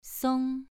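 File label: "song", Mandarin Chinese syllable, second tone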